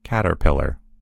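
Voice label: "caterpillar", American English; gcompris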